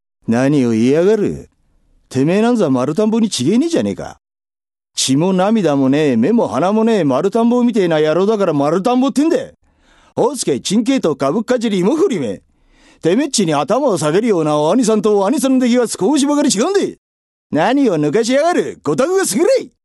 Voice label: male voice